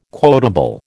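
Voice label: "quotable", American English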